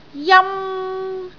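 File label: "jam1", Cantonese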